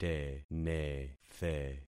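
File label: "tenethe", concatenated syllables; Affixing experiment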